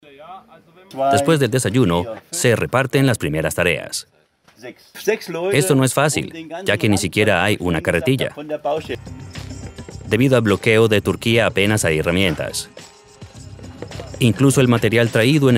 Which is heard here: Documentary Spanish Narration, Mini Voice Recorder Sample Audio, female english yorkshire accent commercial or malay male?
Documentary Spanish Narration